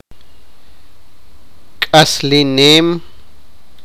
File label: sipakapense; live